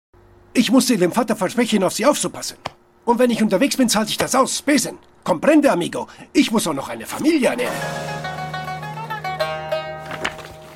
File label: Animation, GERMAN, MALE